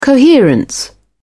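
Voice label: "coherence", British English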